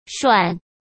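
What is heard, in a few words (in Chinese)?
"涮", Mandarin Chinese; hsk; chinese-flashcards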